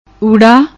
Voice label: "urra", Punjabi; ਊੜਾ